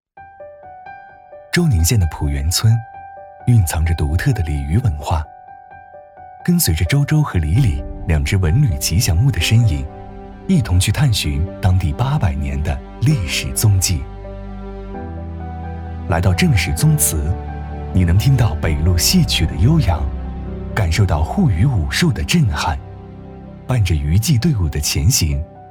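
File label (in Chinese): 旁白文旅宣传; 周宁县文旅宣传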